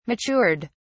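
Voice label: "matured", British English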